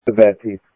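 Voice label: Sheriff East County, scanner audio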